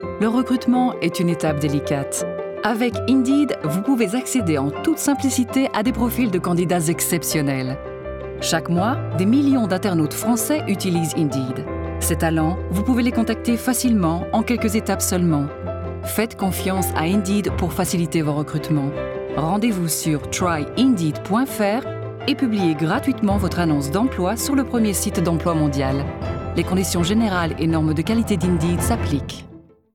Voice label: French Radio commercial; Indeed